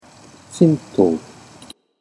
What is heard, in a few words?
"shinto", Japanese